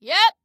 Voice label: "Yes", Female

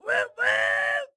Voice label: Woohoo, Excited Guy

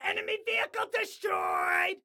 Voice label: Enemy Vehicle, Excited Guy

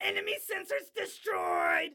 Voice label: Enemy Sensors, Excited Guy

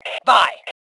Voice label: Global Bye, Female; T2 Female 04

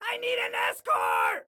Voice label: Need Escort, Excited Guy

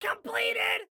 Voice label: Command Completed, Excited Guy

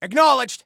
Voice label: Command Acknowledged, Soldier Male

